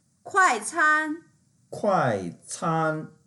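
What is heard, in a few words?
"kuai4can1", Mandarin Chinese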